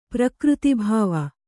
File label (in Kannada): "ಪ್ರಕೃತಿಭಾವ", Kannada